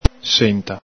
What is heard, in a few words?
"senta", Veneto